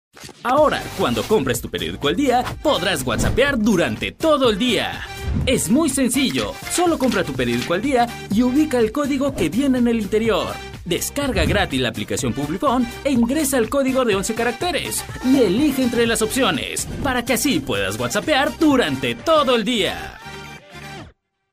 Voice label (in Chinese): 西班牙男声